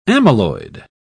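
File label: "amyloid", British English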